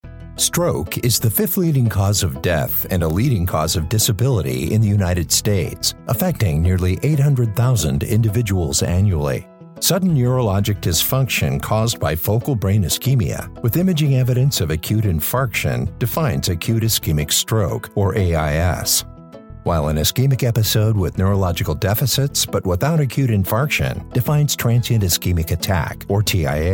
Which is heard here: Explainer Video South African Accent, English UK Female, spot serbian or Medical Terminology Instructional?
Medical Terminology Instructional